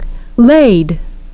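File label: "lad", English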